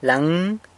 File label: "laëng", he, she, it; him, her, it (proximate); san lucas quiavini